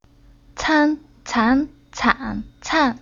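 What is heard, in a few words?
"Can", Chinese syllable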